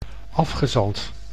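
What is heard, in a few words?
"afgezand", Dutch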